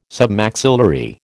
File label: "Submaxillary", American